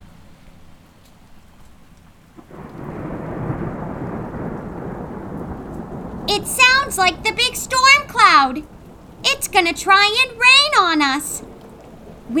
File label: Voice Over